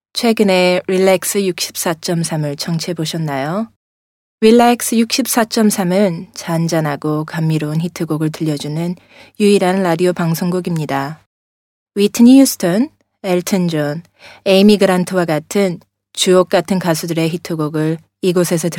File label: Korean Showreel